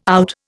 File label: "out", Indian